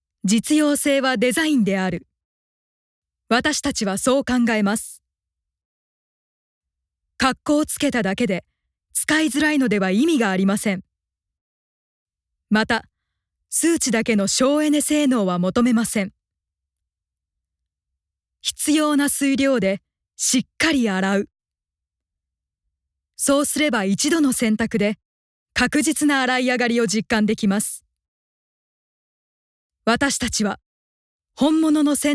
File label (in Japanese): ナレーション